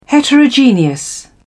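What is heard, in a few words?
"heterogeneous", English, British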